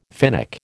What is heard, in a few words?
"Finnic", American